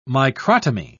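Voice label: "microtomy", British English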